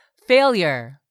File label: "FAILURE", English; 3000 words